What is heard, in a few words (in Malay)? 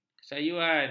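"kesayuan", Malay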